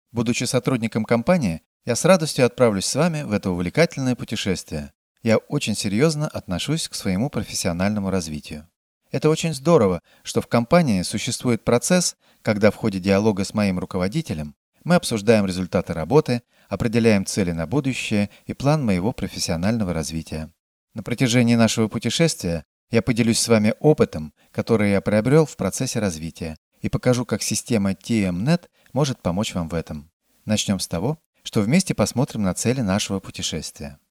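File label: Russian Russia male sales; russian voice over talent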